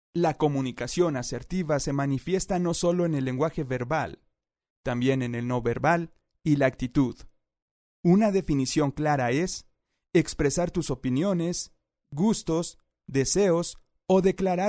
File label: locutor mexicano mexican voiceover